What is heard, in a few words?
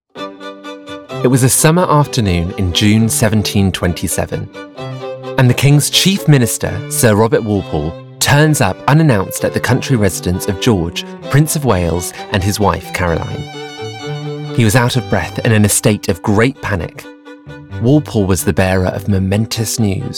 Narration Reel